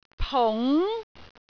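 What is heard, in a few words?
"pung2", Cantonese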